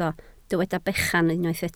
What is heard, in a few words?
"bechan", Welsh